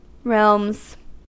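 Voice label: "realms", US English; pronunciations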